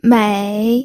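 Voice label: "mei", Mandarin Chinese, third tone; pinyin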